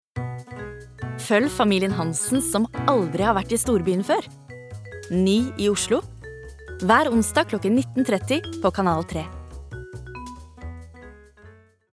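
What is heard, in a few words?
no promo, Norwegian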